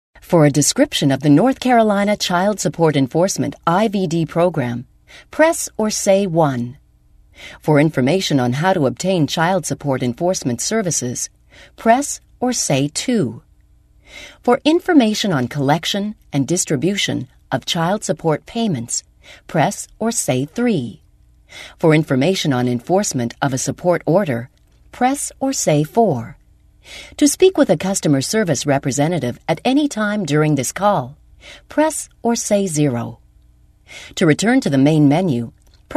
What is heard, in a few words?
Voice Prompt